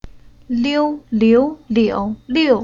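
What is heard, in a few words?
"Liu", Chinese syllable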